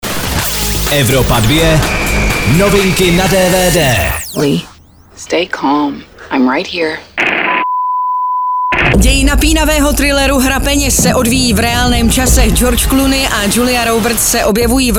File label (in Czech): DVD NEWS; Hra peněz